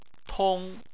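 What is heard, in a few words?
"tong", Chinese, first tone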